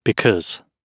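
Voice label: "because", British English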